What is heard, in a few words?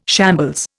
"shambles", Indian